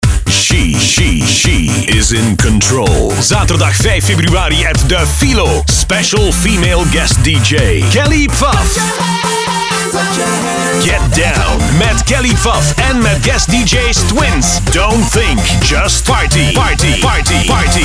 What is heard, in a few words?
party commercial